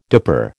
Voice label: "dipper", American